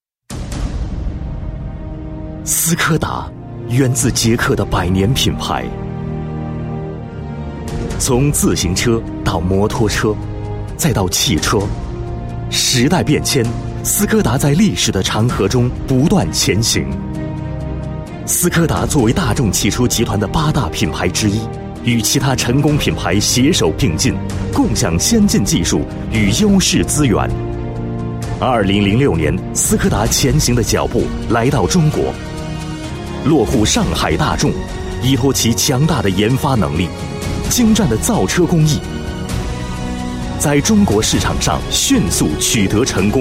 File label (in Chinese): 男 专题, 国语男声配音; 斯柯达